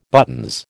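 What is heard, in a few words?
"buttons", American English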